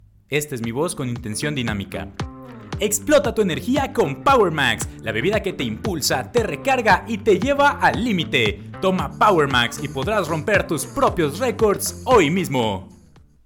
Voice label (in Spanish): dinamica; locutor